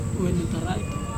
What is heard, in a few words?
"wenutaraito", flesh, Serewen